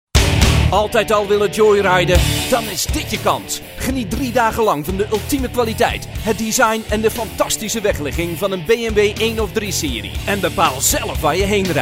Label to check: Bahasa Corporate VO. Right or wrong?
Wrong